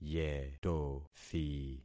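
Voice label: "yetothi", concatenated syllables; Affixing experiment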